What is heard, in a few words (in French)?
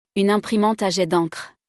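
"Une imprimante a jet d encre", French; vocabulaire; le lexique de l'informatique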